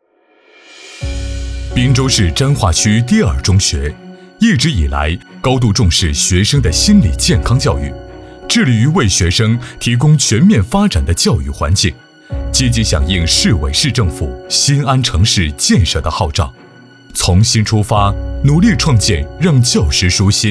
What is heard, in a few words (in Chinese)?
男 专题; 为党育感恩崇德之人